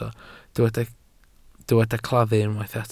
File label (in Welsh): "claddu", Welsh